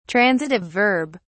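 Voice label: "transitive verb", British English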